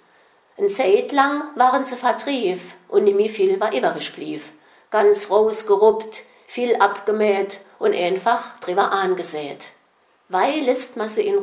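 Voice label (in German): osterglocke narzisse, O-Ton Hunsrück; Damflos; Ooschdaklogge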